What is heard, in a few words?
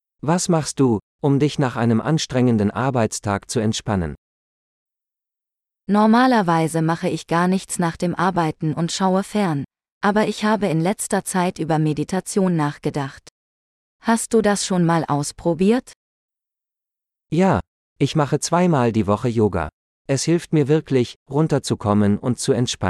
Relaxing - Dialogue; de-en